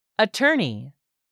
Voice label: "ATTORNEY", english; 3000 words

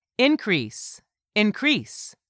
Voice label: "increase", English; 3000 words

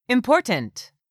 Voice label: "IMPORTANT", english; 3000 words

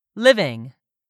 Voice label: "living", English; 3000 words

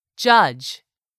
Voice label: "judge", English word; 3000 words

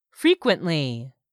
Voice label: "frequently", English; 3000 words

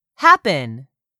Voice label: "happen", English; 3000 words

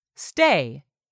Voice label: "stay", english; 3000 words

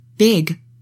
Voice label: "BIG", English; 3000 words

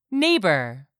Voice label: "neighbor", English; 3000 words

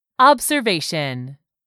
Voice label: "observation", English; 3000 words

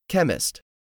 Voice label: "CHEMIST", english; 3000 words

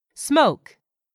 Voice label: "SMOKE", english; 3000 words

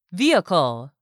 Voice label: "vehicle", English word; 3000 words

